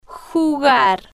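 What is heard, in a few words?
"jugar", slow, Spanish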